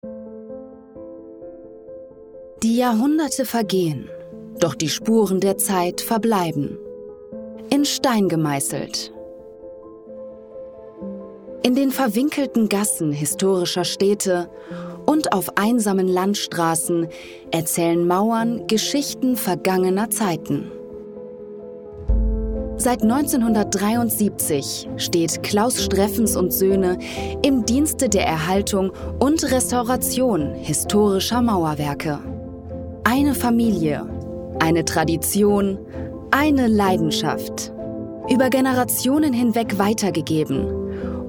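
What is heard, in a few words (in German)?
Imagefilm, Sprecherin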